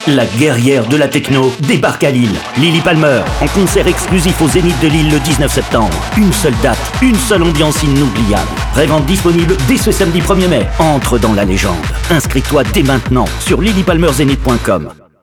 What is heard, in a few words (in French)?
Spot radio concert